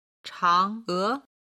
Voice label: "change", chinese

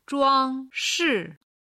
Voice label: "zhuangshi", Chinese

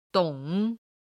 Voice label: "dong", Chinese

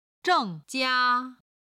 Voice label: "zheng4 jia1", Chinese

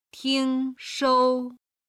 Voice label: "tingshuo", Chinese

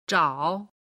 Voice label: "zhao", Chinese

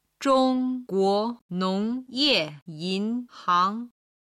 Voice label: "zhong guo nong ye yin hang", Chinese

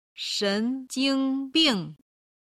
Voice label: "shenjingbing", Chinese

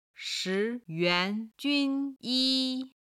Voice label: "shi2yuan2jun1yi1", Chinese

